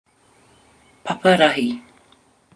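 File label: "paparahi", Māori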